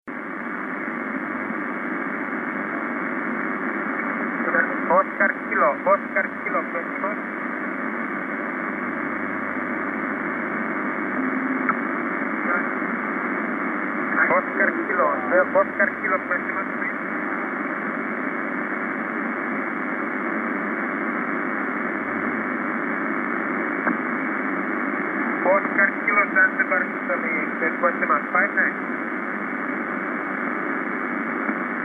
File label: 10m ssb